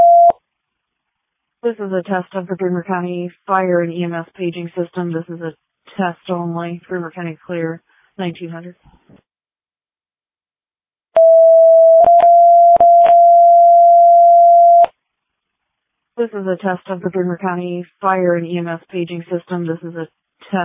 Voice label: All Call, dispatch